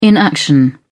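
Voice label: "inaction", British English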